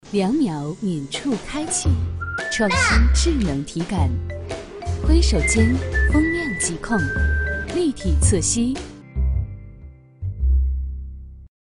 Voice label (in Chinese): 女 广告 油烟机; 奥帅